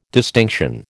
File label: "distinction", American